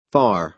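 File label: "far", American English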